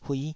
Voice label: "huih5", taiwanese

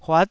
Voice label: "huat9", taiwanese

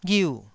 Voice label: "ghiu4", Taiwanese syllable

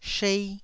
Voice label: "shei1", taiwanese

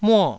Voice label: "muo4", taiwanese

